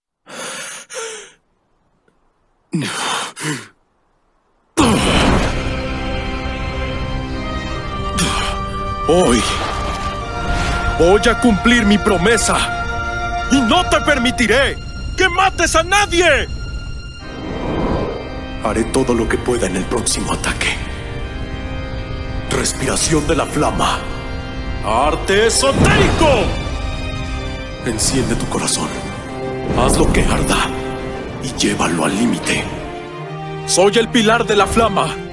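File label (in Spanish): doblaje, Mexico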